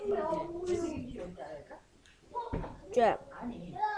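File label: "jab", spoken word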